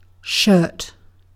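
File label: "shirt", British English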